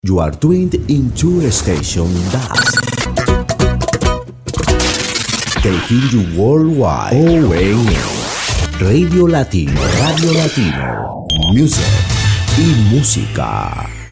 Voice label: radio latino drop

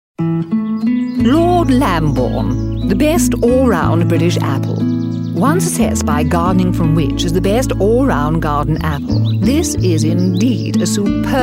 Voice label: advert apples

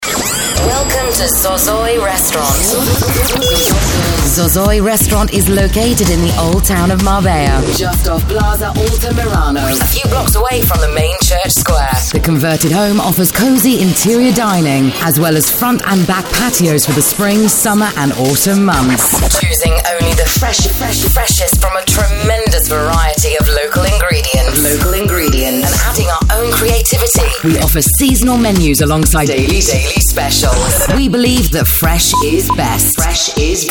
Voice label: radio commercial; zozoi; in marbella

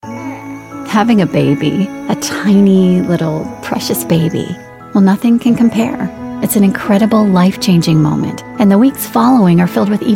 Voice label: radio spot

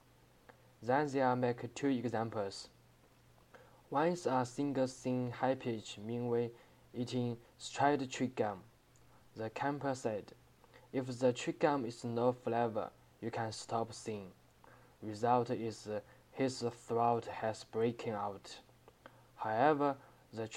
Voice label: advertisement

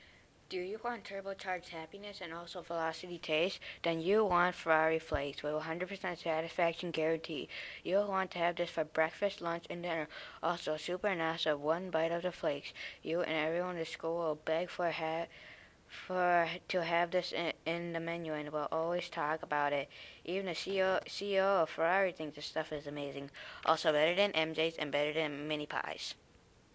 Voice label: fake cereal ad; ferrari flakes

